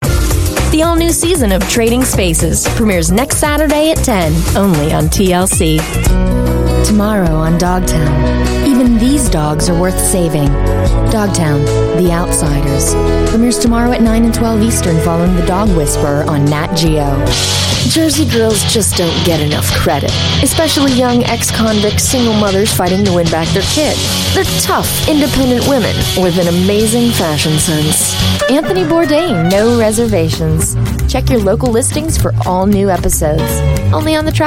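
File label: promo US English female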